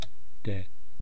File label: "te", East Cree syllabics